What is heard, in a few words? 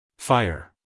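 "fire", American English, male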